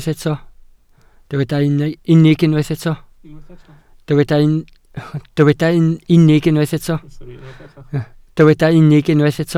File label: "unig", welsh